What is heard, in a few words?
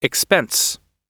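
"expense", English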